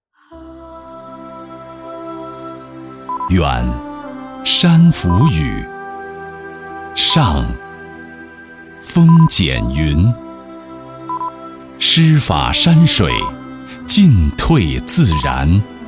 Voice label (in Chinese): 男 地产专题 自然舒缓